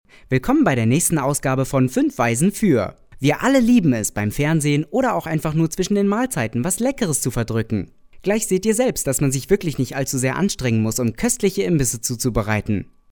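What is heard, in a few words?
german male; lektoring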